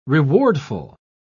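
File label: "rewardful", British English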